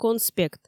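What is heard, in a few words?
"конспект", Komi, female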